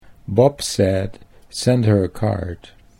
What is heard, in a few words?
Bob said send, Dutch accent